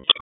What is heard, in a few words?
Judicial, p25; WSO Judicial 1; wake